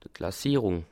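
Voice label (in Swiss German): "klassierung", Bas Rhin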